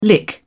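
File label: "lick", British English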